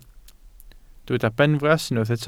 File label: "benfras", Welsh